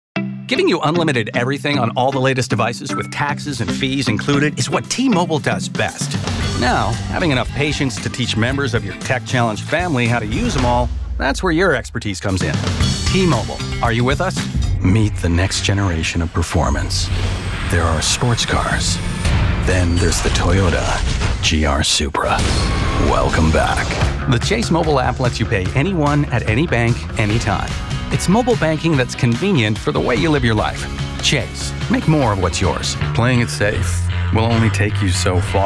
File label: Commercial